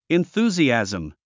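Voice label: "enthusiasm", English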